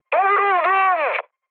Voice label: aircraft damaged mood high, speech; War Thunder